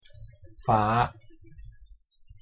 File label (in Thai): "ฟ้า", Thai